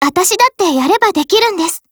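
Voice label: WIN, Japanese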